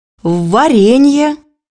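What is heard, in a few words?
"jam", Russian; bukvar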